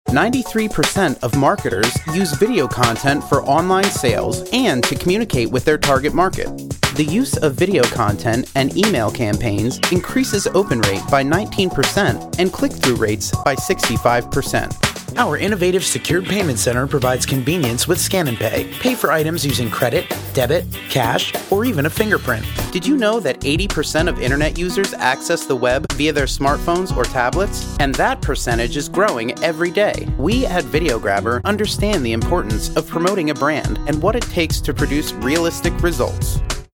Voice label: MALE